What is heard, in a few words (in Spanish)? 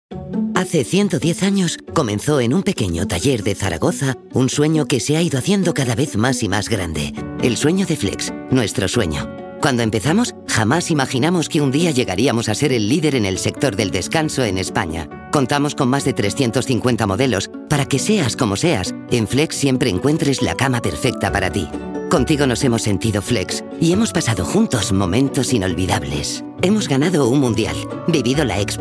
Espanol Espana Femenina Senior